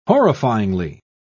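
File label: "horrifyingly", British English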